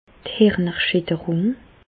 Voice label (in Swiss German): "hirnerschitterung", Bas Rhin; medecine; commotion cérébrale